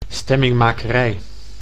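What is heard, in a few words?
"stemminmakerij", Dutch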